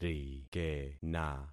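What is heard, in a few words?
"rikena", concatenated syllables; Affixing experiment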